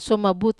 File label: "suomabut", Wamesa